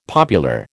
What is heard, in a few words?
"popular", American